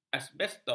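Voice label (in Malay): "asbestos", Malay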